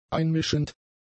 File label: "einmischend", German; adjectives